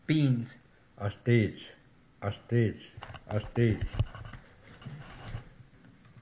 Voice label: beans, language class dictionary